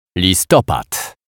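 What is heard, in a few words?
"Listopad", Polish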